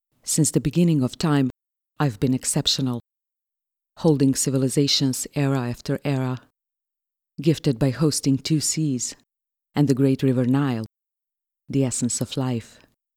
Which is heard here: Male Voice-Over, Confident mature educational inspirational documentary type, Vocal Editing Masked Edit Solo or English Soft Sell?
Confident mature educational inspirational documentary type